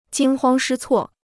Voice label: "惊慌失措", Chinese

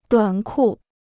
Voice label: "短裤", Chinese

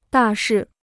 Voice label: "大事", Chinese

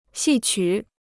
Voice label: "戏曲", Chinese

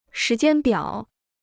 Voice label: "时间表", Chinese

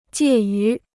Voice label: "介于", Chinese

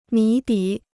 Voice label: "谜底", Chinese

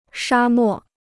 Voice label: "沙漠", Chinese

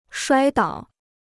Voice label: "摔倒", Chinese

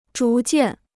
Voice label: "逐渐", Chinese

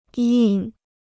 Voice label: "印", Chinese

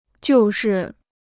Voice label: "就是", Chinese

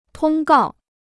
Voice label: "通告", Chinese

